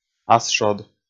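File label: "асшод", Komi, male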